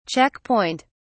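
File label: "check point", British English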